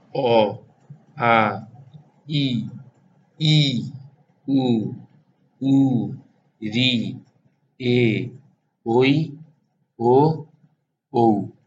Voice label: vowels, bengali